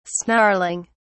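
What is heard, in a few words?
"snarling", British English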